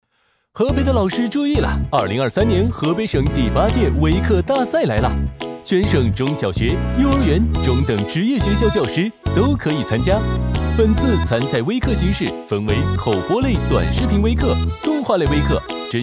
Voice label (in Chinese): 男 教师招募 电梯 地铁广告